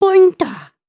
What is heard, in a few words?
group talk